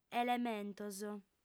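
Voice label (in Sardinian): "elementos", female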